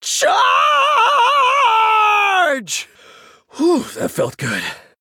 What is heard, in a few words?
Male Human Bark; Leeroy Jenkins